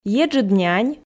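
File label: "еджыд нянь", Komi, female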